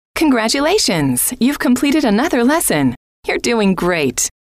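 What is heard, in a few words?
congratulations you've completed another, female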